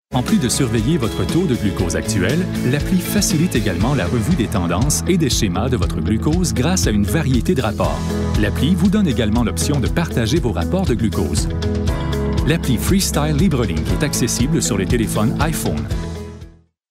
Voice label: french canadian narration